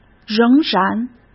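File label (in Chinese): "仍然", Mandarin Chinese; hsk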